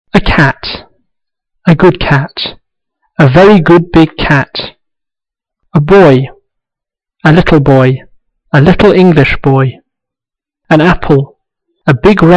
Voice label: lesson falling tone